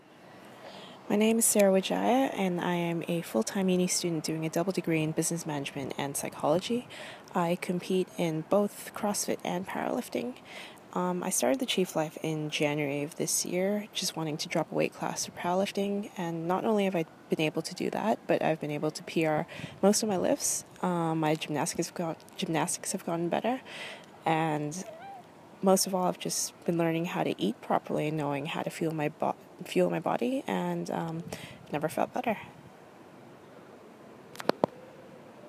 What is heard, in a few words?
Voice Testimonial